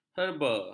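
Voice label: "herba", Malay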